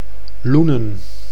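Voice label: "Loenen", Dutch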